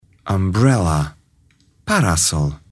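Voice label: "umbrella", English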